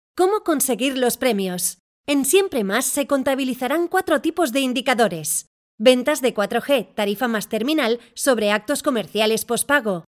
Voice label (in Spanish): locutora española